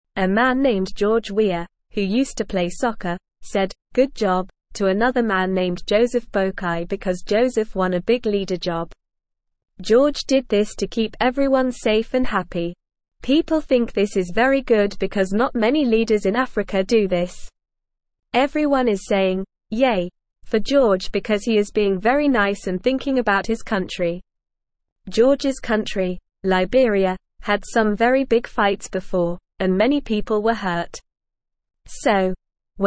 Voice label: English Newsroom Beginner NORMAL Reading; George Weah Congratulates Joseph Boakai Making Liberia Happ